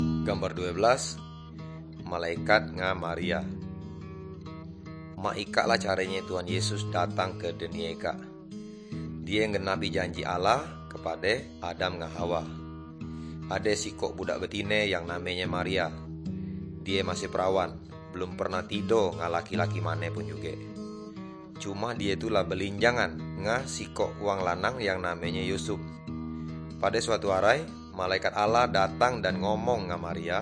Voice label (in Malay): good news melayu tanjung raja